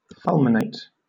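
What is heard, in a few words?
"fulminate", British English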